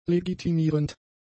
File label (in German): "legitimierend", German; adjectives